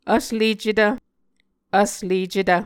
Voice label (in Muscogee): "vslecetv", Muscogee